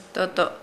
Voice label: Nukuoro; blood